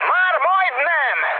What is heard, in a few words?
near waypoint, mood high, speech hun; War Thunder